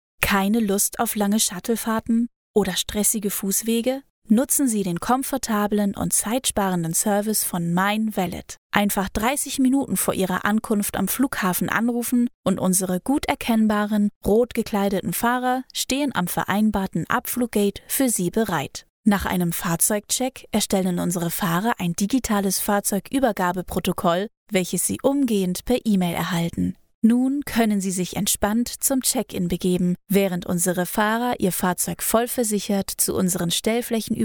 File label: German female